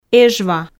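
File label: "эжва", Komi, female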